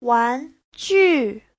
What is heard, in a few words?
"wan2ju4", Chinese; 玩具 : đồ chơi; Giáo trình Hán ngữ